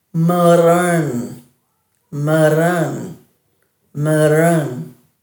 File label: "murrurn", Dhurga